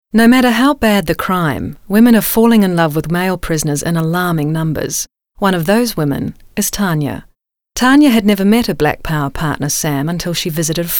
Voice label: narration